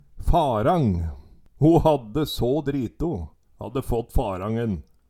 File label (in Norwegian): farang, Numedalsmål